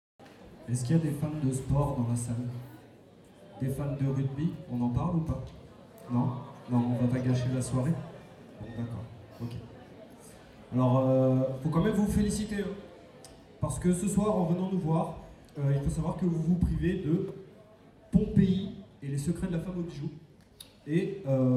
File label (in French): presentation